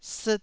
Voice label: "siit1", taiwanese Syllables